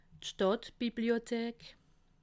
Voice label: "stadtbibliothek", alsace

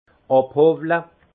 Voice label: "abhowle", alsace; vin; raboter

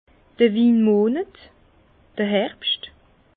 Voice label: "winmonet", Bas Rhin; vin; mois des vendanges

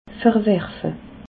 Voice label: "verwerfe", alsace

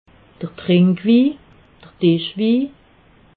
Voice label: "trinkwi", alsace

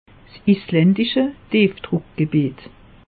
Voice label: "islandische", alsace; meteo

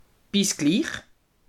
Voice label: "bisglich", alsace

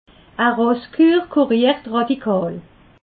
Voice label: "rosskur", alsace

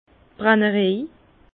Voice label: "brannerej", Bas Rhin; vin; distillerie

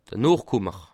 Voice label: "nochkummer", alsace

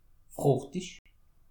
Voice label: "fruchtich", alsace

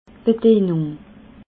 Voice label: "bedienung", alsace

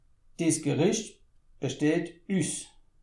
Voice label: "bsteht", alsace

